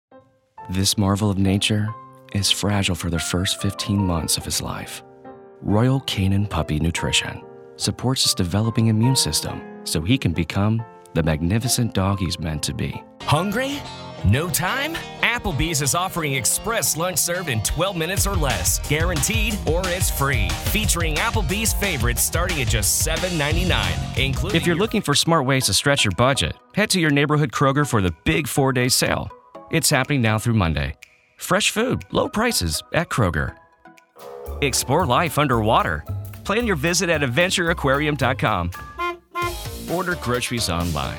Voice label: Commercial